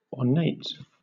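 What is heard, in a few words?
"ornate", British English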